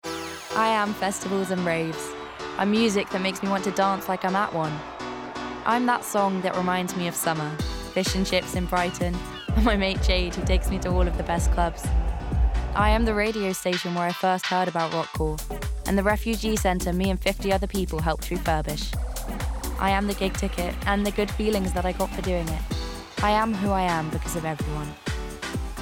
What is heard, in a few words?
Commercial; Rock Corp